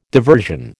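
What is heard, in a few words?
"diversion", American English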